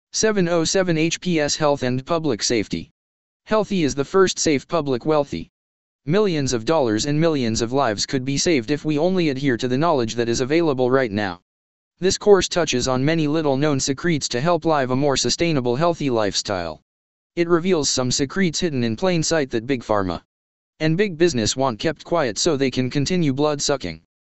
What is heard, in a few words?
text 2 voice